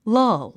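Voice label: "lull", English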